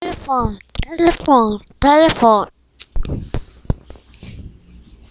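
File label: "telephone", spoken word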